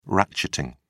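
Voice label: "ratcheting", British English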